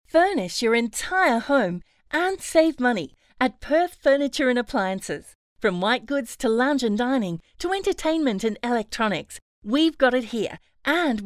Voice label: Retail Friendly